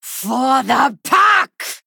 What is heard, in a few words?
Female Night Elf Attack